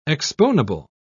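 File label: "exponible", English, British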